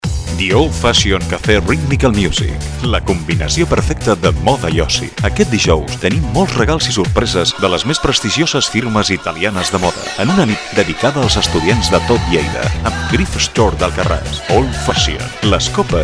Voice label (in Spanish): Publicidad Radio voces comerciales musica; Old Fashion Cafe